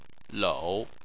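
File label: "lou", Chinese, third tone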